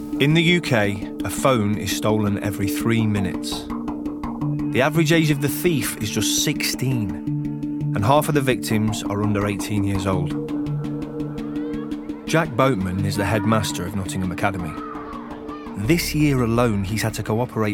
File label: Documentary